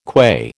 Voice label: "qua", American